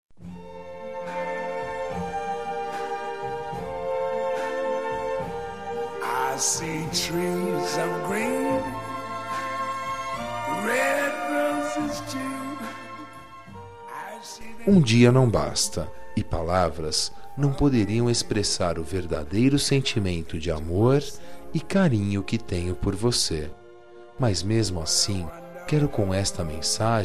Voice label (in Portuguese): Dia das Maes pra Vovo, M